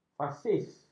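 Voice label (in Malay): "fasis", Malay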